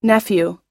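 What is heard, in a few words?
"nephew", English pronunciation; long u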